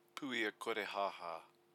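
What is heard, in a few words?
"puia korehaha", Māori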